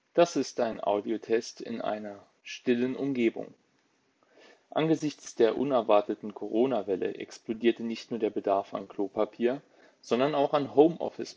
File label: Bluetooth Headset Pro; xiaomi